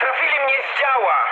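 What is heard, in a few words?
Polish damaged anti-aircraft mood medium; War Thunder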